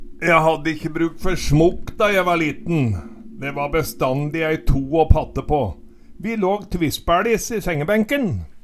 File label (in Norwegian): tvispæLis, Numedalsmål